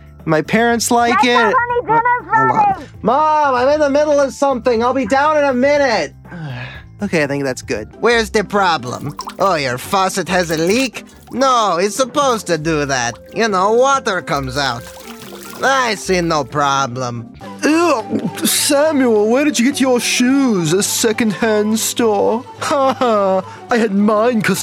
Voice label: Animation Character

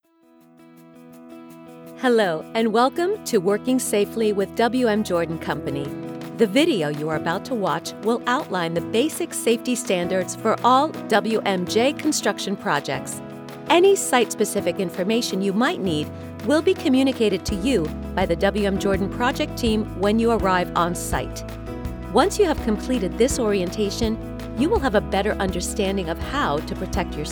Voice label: INTRO W MUSIC ENGLISH

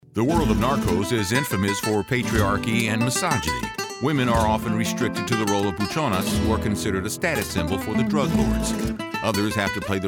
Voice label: Narration

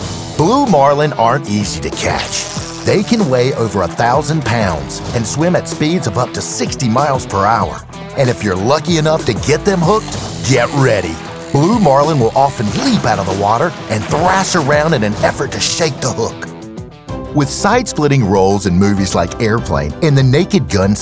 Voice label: Narration Demo

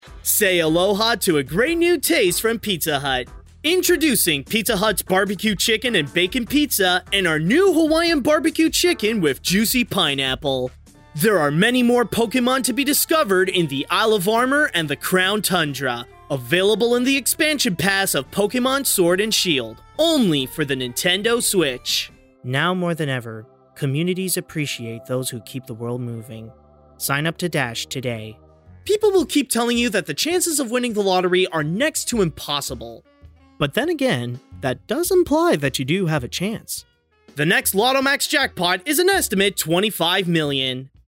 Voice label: Commercial

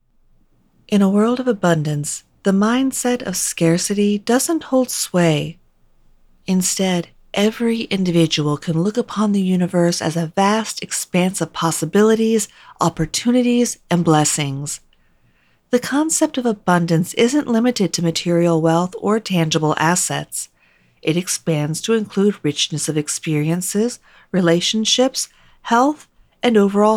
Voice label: Motivational

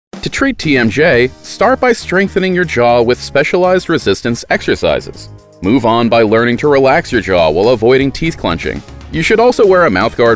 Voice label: NARRATION

